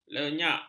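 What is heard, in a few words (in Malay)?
"lenyak", Malay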